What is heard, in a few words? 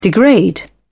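"degrade", British English, dictionary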